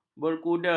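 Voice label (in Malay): "berkuda", Malay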